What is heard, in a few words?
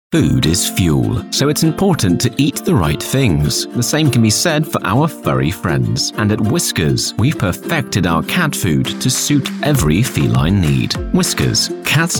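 English male; lektoring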